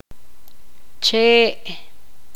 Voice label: poqomam palo

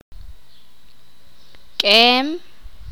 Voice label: "pasto", Sakapulteko

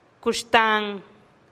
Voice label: "kuxtan" juchitán, teotitlan

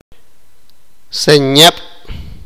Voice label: "arena de rio", sipakapense

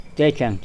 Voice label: Nawat palabras aldea; pipil

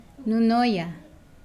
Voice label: Nawat palabras abuela; pipil

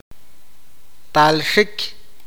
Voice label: "hijo de mujer", awakateko

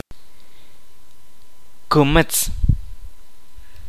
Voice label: "serpiente", Kaqchikel

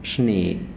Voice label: Zapoteco cola; miahuatec

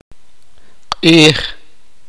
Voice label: "sol", sipakapense

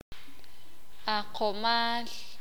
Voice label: "obscuridad oscuridad", Sakapulteko

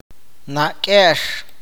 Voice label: abuela materna, awakateko

